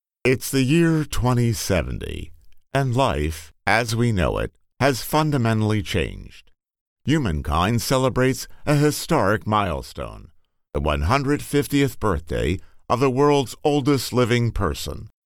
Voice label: Docu

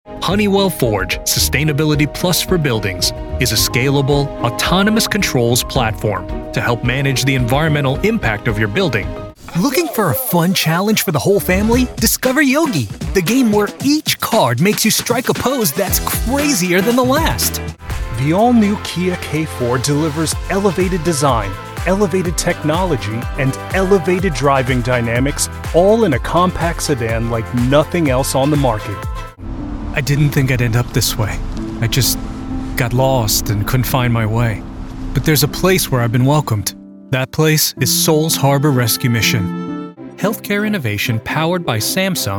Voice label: Professional Commercial; Quaker Chile

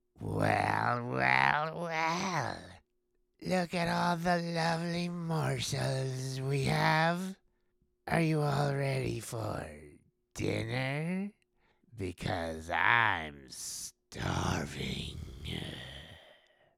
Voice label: Creepy Bog Witch

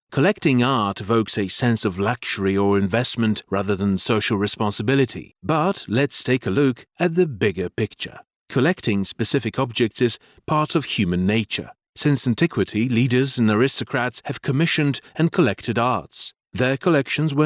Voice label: narrative ENGLISH

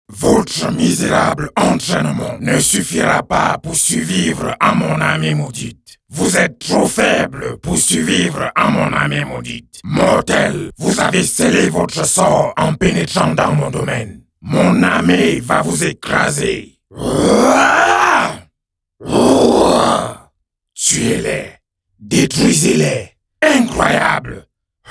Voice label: Annimation Dark Lord

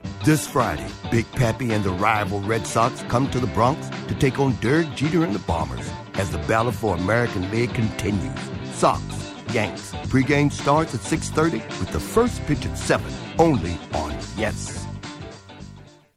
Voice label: Promo; NYY

